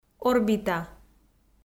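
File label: "орбита", Komi-Zyrian, female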